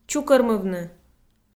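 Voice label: "чукӧрмывны", Komi, female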